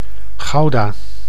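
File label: "Gouda", Dutch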